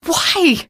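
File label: citizen buddy killed, female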